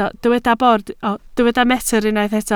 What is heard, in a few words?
"metr", Welsh